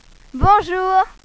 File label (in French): "bonjour", Belgique; Bruxelles